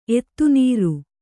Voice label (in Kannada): "ಎತ್ತುನೀರು", Kannada